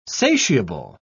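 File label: "satiable", British English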